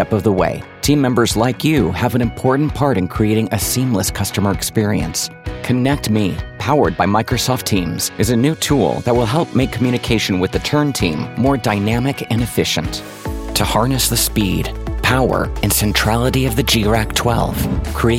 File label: english american corporate videos